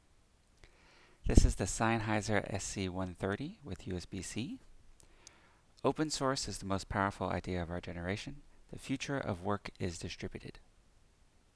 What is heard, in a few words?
sennheiser sc130 usbc mono